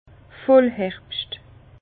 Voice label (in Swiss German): "vollherbscht", Bas Rhin; vin; vendanges plétoriques